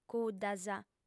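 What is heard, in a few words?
"cuddas", female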